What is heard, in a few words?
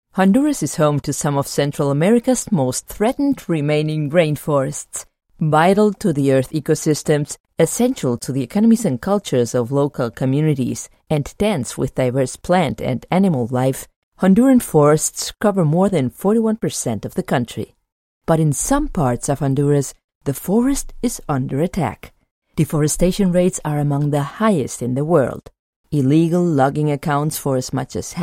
en es accent; avery dennison